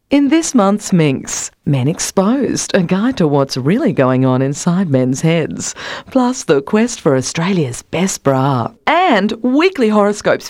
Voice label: Voice Over